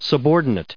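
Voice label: "subordinate", US English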